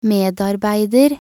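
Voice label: "medarbeider", Norwegian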